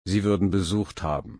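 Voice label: "besuchen", German, slow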